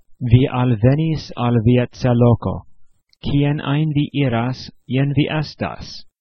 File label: tomtom gps esperanto